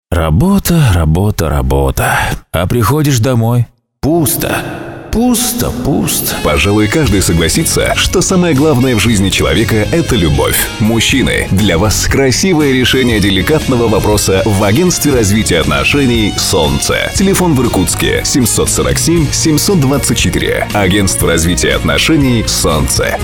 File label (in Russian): Ролик на радио; Агентство Солнце